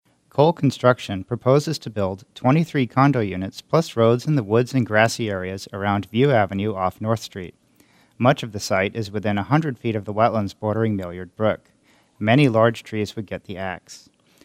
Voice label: spot vox